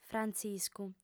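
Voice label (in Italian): lingua sarda, female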